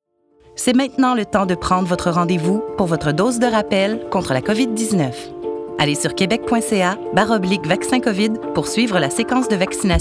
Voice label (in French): annonceur fr normatif affirmee douce rassurante; gouv du qc dose de rappel; explicative